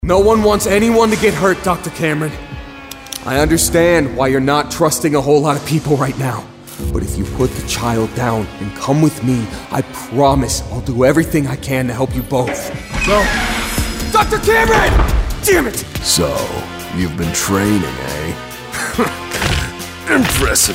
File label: animation men interactive, voices men